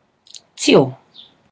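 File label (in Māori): "tio", Māori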